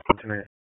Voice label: Police Spec Ops Tac 4, p25; Raleigh; wake p25

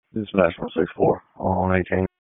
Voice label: PS TAC, p25

